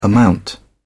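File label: "amount", English, British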